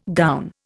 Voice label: "gown", Indian